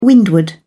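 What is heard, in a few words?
"windward", British English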